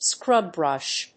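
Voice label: "scrub brush", English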